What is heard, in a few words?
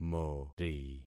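"mori", concatenated syllables; Affixing experiment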